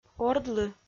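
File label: "ордлы", Komi-Zyrian, female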